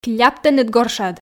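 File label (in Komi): кляп тэныд горшад, Komi, female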